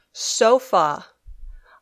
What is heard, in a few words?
"sofa", English